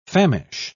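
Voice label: "famish", British English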